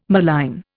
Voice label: "malign", Indian